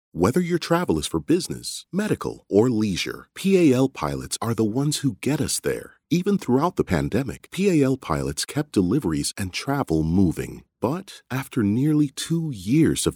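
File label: English, Canadian, male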